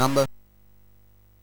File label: Bouncy Balls speech, MOS 6581